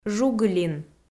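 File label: "жугылин", Komi, female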